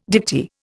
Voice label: "dicty", Indian

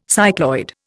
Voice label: "cycloid", Indian

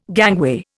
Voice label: "gangway", Indian

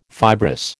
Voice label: "fibrous", American

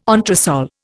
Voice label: "entresol", Indian

